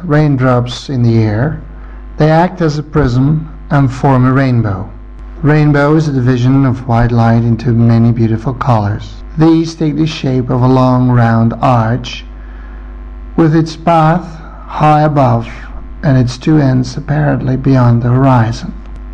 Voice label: belgium, dialects